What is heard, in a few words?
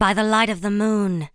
Archer warcry, en